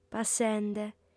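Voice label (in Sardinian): "passende", female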